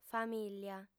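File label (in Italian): "famìlia", lingua sarda, female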